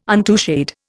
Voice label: "untouched", Indian